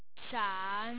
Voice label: "shan3", Chinese Mandarin, Forth Tone, A falling tone that starts high and e